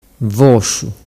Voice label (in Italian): "vosciu", dialettu